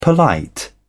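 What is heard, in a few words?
"polite", British English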